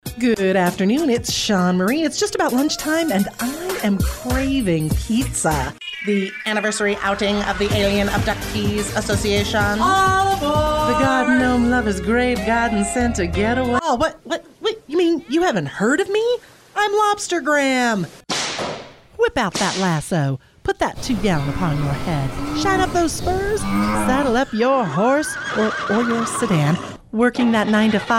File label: Voice Demo Commercial and Audio Book